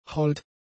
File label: "hold", German; adjectives